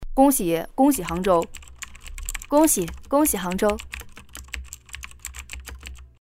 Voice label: 东北方言 女 东北话 恭喜; 方言配音; 杭州

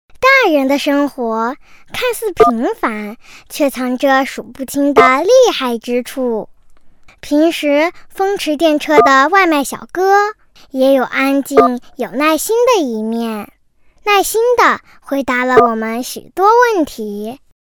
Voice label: 女 真童 女童; 真童配音; vivo女童

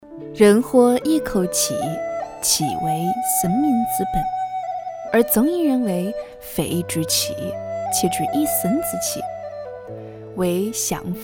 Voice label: 湖南方言 女 湖南话; 方言配音; 人活一口气

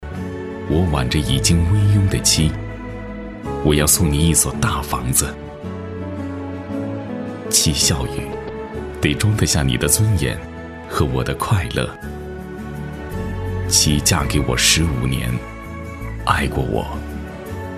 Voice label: 男 国 大音 温柔成熟独白; 地产; 微电影配音

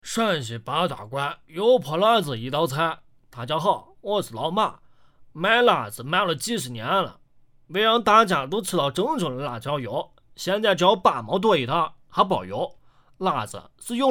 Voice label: 陕西方言 男 方言 陕西话信息流; 方言配音

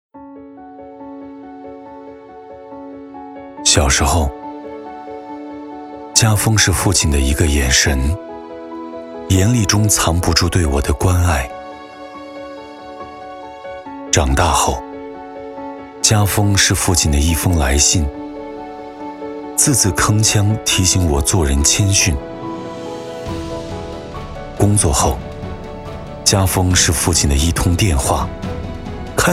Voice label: 男 国 大音 走心 公益广告; 家风

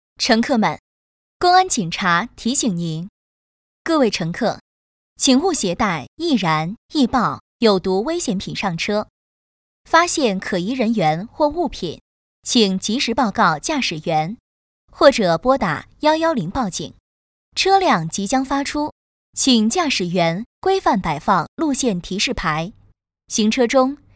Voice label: 女 乘客提示音; 女声广播提示

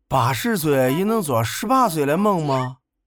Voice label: 河南方言 男 中青年 河南话; 方言配音; CCC配音

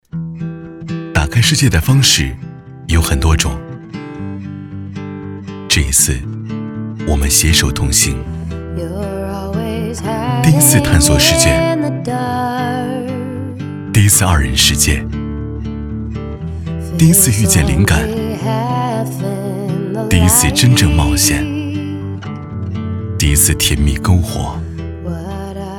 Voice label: 男 国 大音 走心旁白; 哈弗初恋SUV汽车

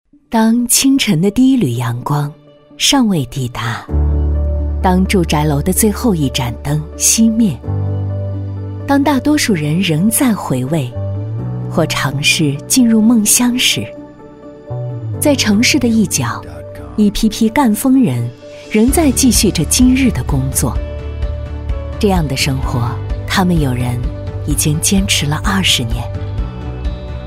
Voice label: 女国 励志形象片; 走心企业形象片样音; 赣锋能

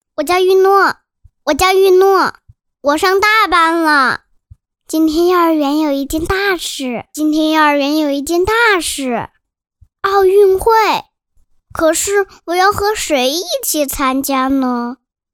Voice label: 男 男真童; 运动会; 真童配音

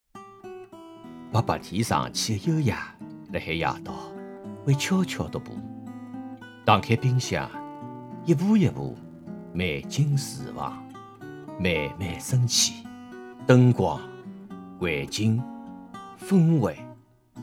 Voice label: 上海方言 男 上海话; 方言配音

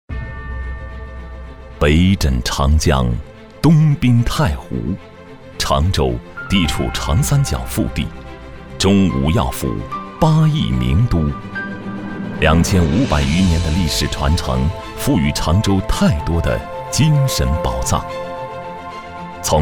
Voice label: 男, 文旅配音; 常州文旅

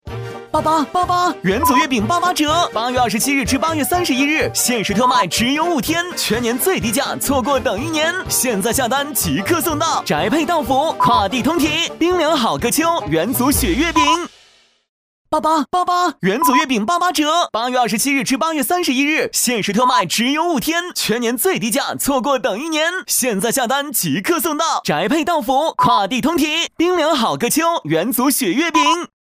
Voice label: 男; 中秋节样音; 元祖月饼

